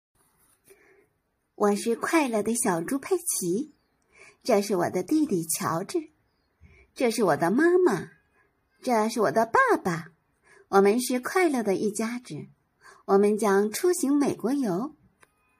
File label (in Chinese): 动画配音